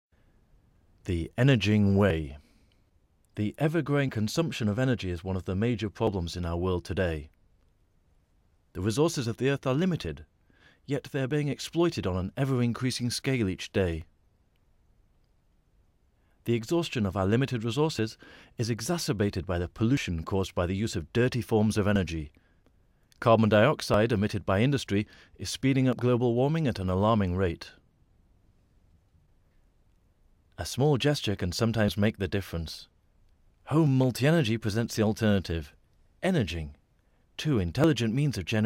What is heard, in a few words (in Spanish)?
voz video locutor ingles britanico nativo locuciones voz; Locución en inglés británico energia; Energing